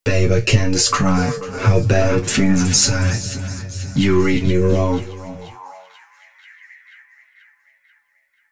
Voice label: male a# 128, hooklines, Dance Ultra Voice; hooklines 121-130 BPM; Mutekki Media; Dance Ultra Voice VOL-1

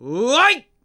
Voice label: OI, SHOUT, Human

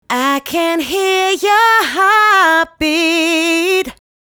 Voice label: "I Can Hear Your Heartbeat", vocal phrases; Ultimate Vocals

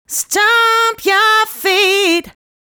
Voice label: "Stomp Your Feet", vocal phrases; Ultimate Vocals

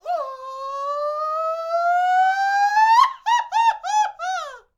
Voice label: MEXICO VOICE, MEXICAN; ILIO; Vocal Planet VOL-5

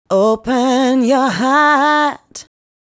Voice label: "Open Your Heart", vocal phrases; Ultimate Vocals

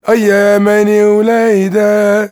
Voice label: MAN VOICE, Arabian Traditions

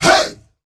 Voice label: Hey, Vocals; Roland BK-5

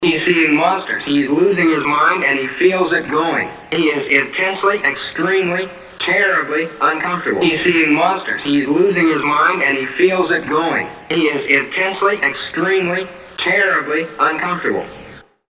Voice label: voice FX, industrial

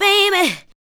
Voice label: ADLIBS 90; Ueberschall; Jam Box

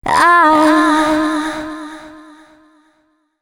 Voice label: female c#133, adlips; Mutekki Media; Dance Ultra Voice VOL-1

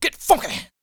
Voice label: male spoken; R&B Dance

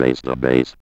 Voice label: ROBO VOX; Masterbits - Tekkno Trax

